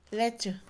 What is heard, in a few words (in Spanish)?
leche, Mixe, Mujer; Comida; INALI